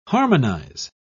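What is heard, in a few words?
"harmonise", British English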